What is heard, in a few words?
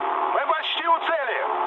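near waypoint, mood med, Russian; War Thunder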